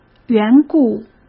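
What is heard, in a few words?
"缘故", Mandarin Chinese; chinese flashcards; hsk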